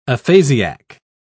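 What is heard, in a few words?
"aphasiac", British English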